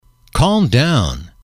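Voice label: "calm down", English, male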